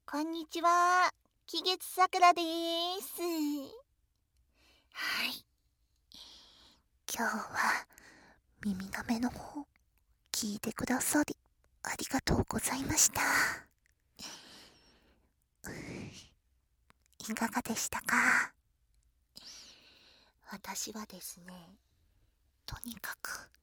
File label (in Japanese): フリートーク; 耳舐め&吐息ぐっぽり10時間♪